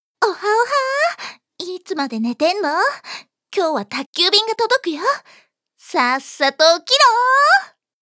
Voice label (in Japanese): ハイテンション, ハイテンションな女の子